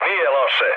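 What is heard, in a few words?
Serbian trick mood med; War Thunder; speech srb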